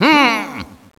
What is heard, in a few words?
angry, Echo inside caves, underground; DS; ML BOWSERS INSIDE; Luigi